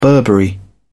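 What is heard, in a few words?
"burberry", British English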